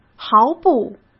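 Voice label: "毫不", Mandarin Chinese; hsk; chinese-flashcards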